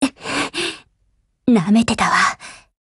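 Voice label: BREAK Japanese, Voice; GF Alarm; FNFAL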